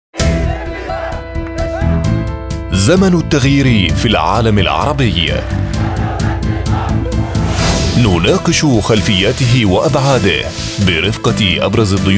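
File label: Arabic voiceover; sprecher; arabisch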